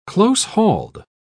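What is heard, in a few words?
"close-hauled", British English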